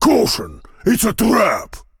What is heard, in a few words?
Voice Monolog